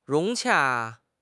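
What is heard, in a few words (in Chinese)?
"融洽", Chinese; HSK6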